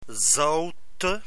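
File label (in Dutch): "zoutte" Dutch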